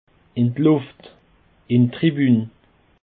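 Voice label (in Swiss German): "luft", Bas Rhin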